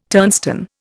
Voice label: "turnstone", Indian

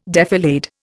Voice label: "defilade", Indian

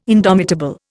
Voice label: "indomitable", Indian

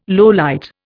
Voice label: "lowlight", Indian

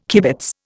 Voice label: "kibitz", Indian

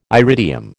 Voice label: "iridium", American

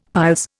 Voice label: "piles", Indian; Dictionary

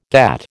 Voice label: "GATT", American

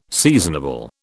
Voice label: "seasonable", British

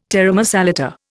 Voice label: "taramasalata", Indian

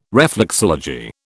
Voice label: "reflexology", British

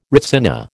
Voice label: "retsina", American

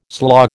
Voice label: "slaw", American

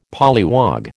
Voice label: "polliwog", American

